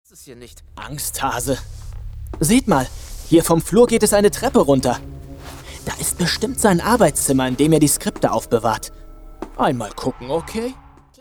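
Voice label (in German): Schauspiel, Neutral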